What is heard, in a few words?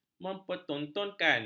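"mempertontonkan", Malay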